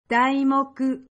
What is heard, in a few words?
"Daimoku", Japanese